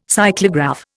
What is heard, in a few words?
"cyclograph", Indian